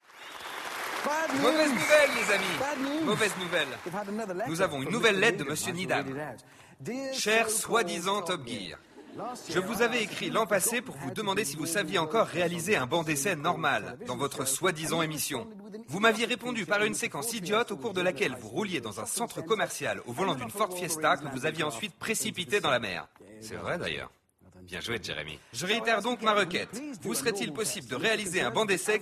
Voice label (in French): Voice Over